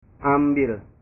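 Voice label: "ambil", Indonesian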